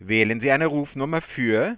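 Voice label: please select a number for, German, Voice Sounds; Samsung SGH-i310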